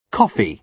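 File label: "coffee", British English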